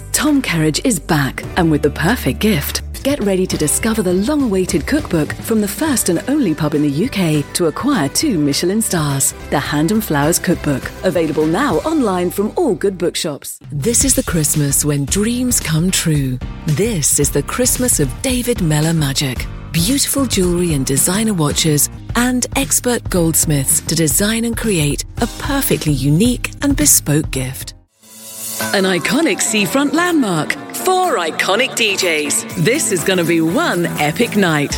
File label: commercial